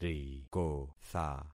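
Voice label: "rikotha", concatenated syllables; Affixing experiment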